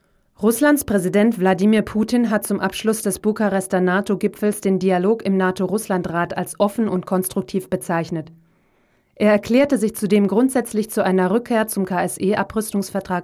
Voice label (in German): Nachrichten; Putin